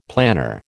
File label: "planner", American